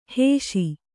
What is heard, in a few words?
"ಹಿಶೆ", kannada